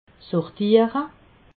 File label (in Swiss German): "sortiere", alsace